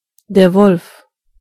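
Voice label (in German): "wolf", German; gcompris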